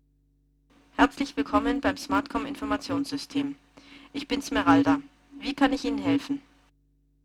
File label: Sine fast; SmartKom; Prototypen Stimme